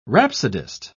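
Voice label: "rhapsodist", British English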